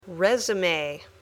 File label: "resume", English